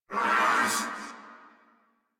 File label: threatened crewmen; Warframe; Corpus Crewmen; Crewman C